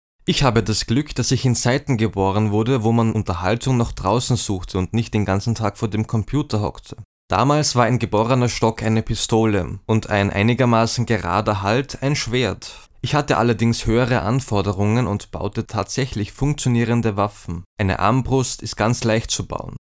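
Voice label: german male; lektoring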